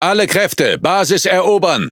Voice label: Speech Quick Commands Enemy Base, DE; World Of Warships

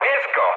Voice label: attack position mood med, speech pol; War Thunder

